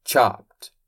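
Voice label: "chopped", English; receitas em inglês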